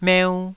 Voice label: "meu2", taiwanese